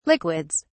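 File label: "liquids", British English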